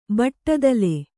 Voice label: "ಬಟ್ಟದಲೆ", Kannada